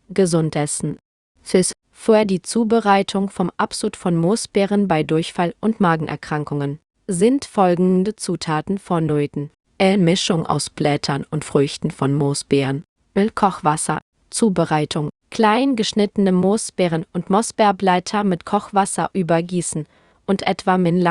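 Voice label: de-DE neutral speech, German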